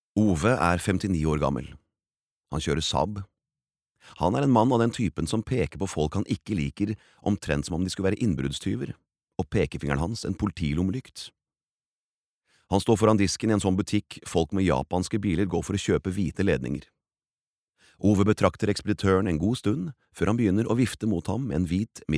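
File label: Norwegian audiobook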